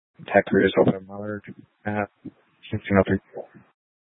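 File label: Incident Tac 3, Scanner audio recording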